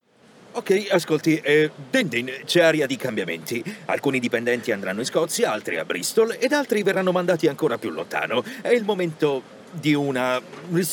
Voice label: italian tv shows

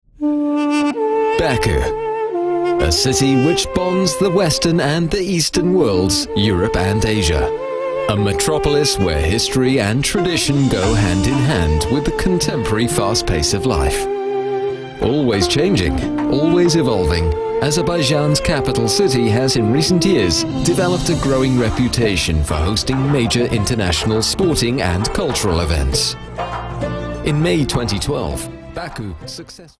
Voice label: english british documentaries

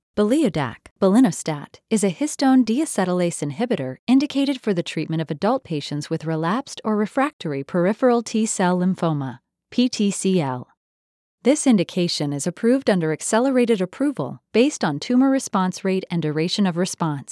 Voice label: english american medical narration; Synchronsprecherin; Heimstudio in Chattanooga

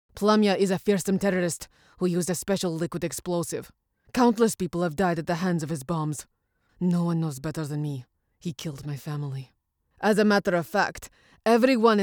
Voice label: english international animation

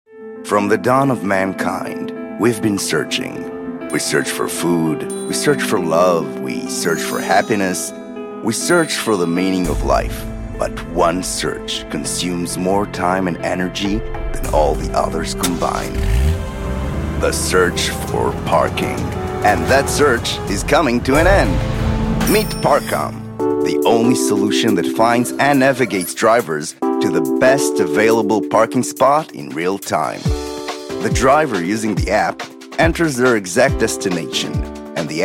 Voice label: english commercial

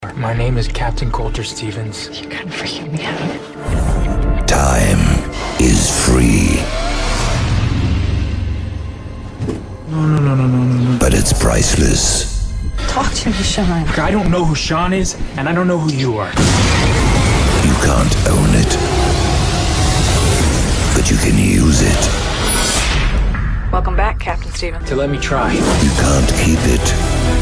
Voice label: english south african movie trailers

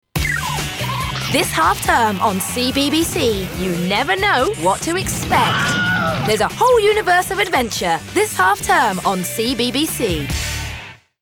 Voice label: english british cartoon animation

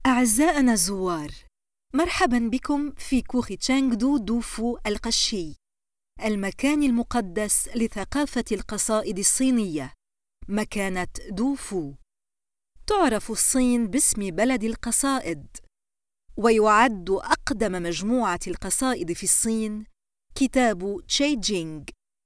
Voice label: arabic narration

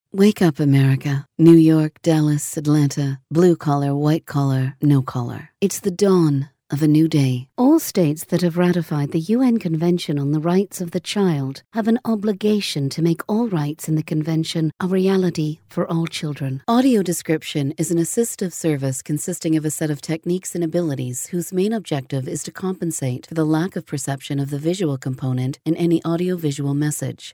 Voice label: english american narration

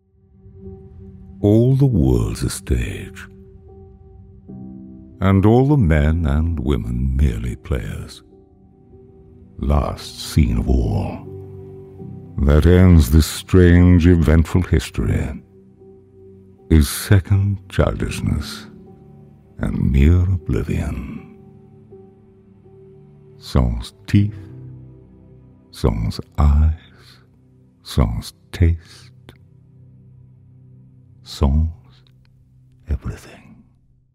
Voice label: english british audiobooks

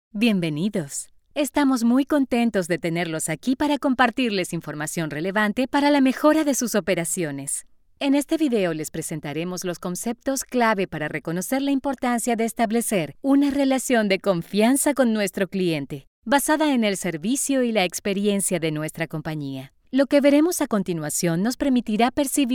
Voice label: spanish latin american corporate videos

